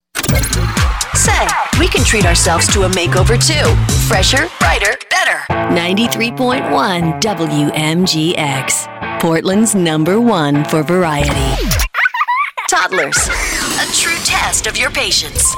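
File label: radio female adult contemporary radio; radio imaging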